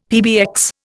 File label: "PBX", Indian; Dictionary